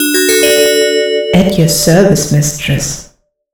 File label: female startup girl